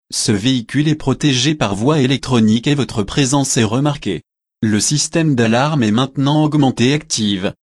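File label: French, camper alarm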